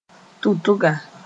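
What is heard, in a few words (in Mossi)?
"tʋtga", Mooré; button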